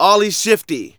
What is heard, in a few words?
Narration